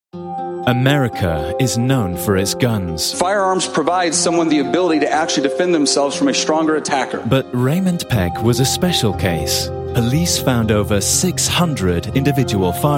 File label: TV Narration